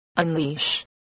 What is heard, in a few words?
"unleash", English spelling voice